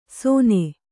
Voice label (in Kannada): "ಸೊನೆ", Kannada